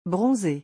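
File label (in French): "bronzer", French; vocabulaire; le lexique des vacances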